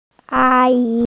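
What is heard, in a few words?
"ā yí", Mandarin Chinese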